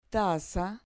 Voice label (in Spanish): "taza", Spanish